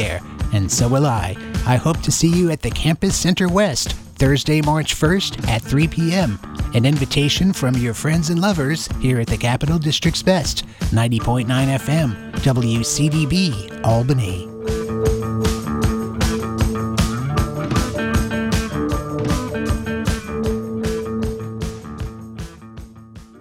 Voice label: birthday event promo; 2018 40th birthday March 1